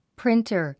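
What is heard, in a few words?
"printer", English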